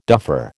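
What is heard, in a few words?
"duffer", American English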